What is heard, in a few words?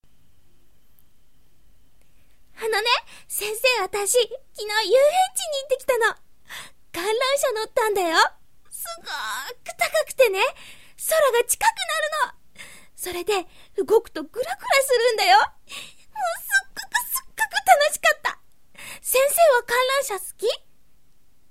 Small Girl